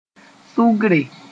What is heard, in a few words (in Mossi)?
"sʋgre", Moore; average